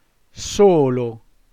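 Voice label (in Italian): "solo" Italian word, voce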